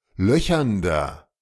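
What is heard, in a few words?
"löchernder", German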